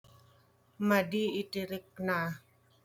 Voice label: Ilocano